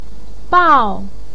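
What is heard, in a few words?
"bao4", Mandarin Chinese, fourth tone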